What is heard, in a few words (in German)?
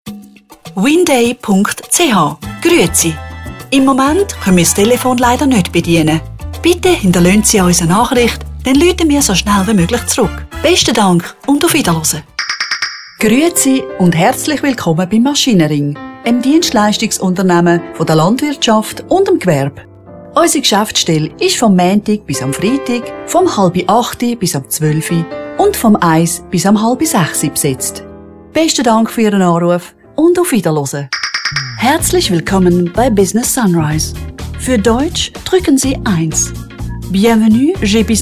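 telefonansagen sprecher Swiss; Native Speaker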